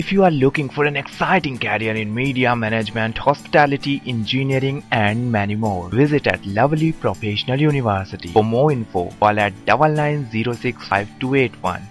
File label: Commercial English, male voice